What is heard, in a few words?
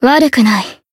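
growth, Japanese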